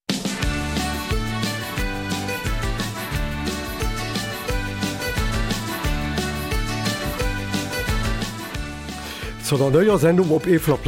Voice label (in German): Eifeler Mundart; Schulanfang